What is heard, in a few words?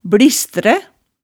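"blistre", Hemsedal dialect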